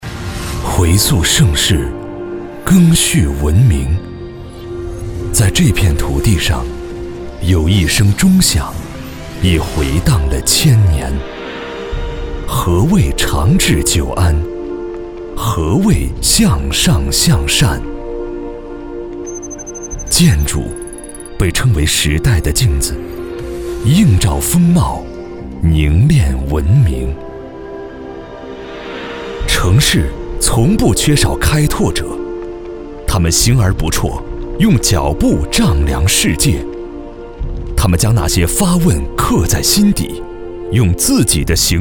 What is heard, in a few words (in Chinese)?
男, 宣传片